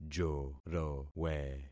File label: "jorowe", concat syllables; Affixing experiment